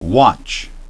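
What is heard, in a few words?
"watch", English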